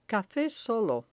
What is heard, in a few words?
"cafe solo", Spanish